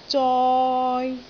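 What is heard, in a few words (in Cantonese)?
"zoi3", Cantonese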